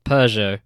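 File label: "Peugeot", British English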